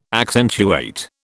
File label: "accentuate", British